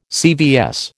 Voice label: "CVS", American